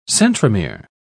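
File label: "centromere", British English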